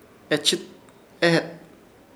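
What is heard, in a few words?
"Echɨt", Chamacoco word, interior; Ishir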